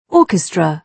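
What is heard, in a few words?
"orchestra", British English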